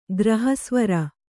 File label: "ಗ್ರಹಸ್ವರ", kannada